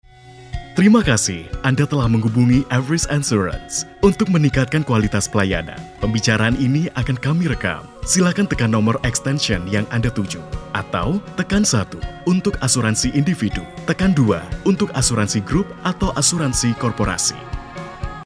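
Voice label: Indonesian interactive voice response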